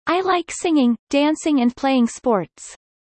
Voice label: fast; studying abroad